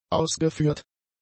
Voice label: "ausgeführt", German; adjectives

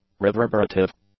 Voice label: "reverberative", English; adjectives

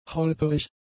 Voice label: "holperig", German; adjectives

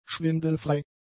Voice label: "schwindelfrei", German; adjectives

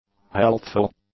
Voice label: "healthful", English; adjectives

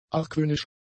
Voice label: "argwöhnisch", German; adjectives

